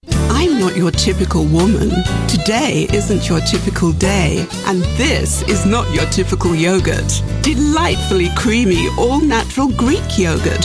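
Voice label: British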